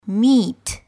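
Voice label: "meat", English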